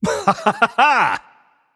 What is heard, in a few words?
"yay", voicepack; smite; merlin